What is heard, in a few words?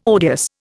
"odious", Indian